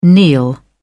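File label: "kneel", English, British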